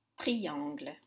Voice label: "tringle", French